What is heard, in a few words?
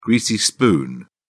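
"greasy spoon", British English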